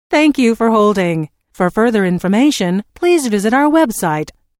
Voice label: Telephone prompt: Thank you for holding for further information please visit our website, Recorded Voice